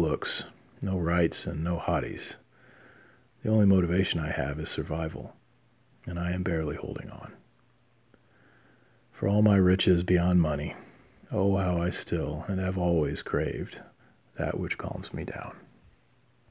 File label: Voice travel griping